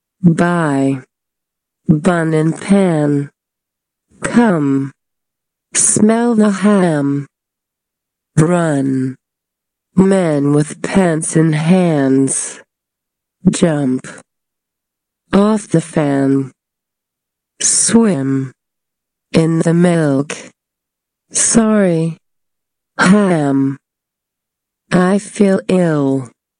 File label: Slow